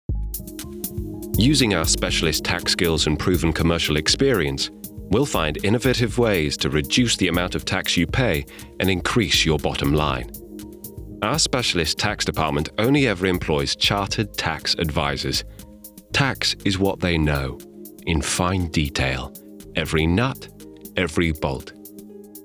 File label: explainer